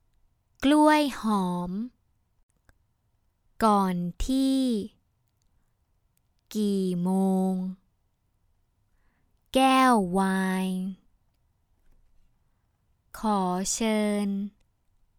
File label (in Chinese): 泰语女-单词阅读